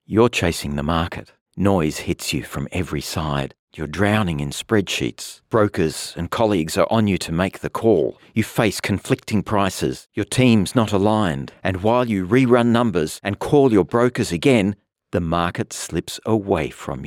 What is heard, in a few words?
Motion Design Explainer, Explainer Videos, Natural Voice Building Urgent, male